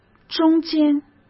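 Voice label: "中间", Mandarin Chinese; hsk; chinese-flashcards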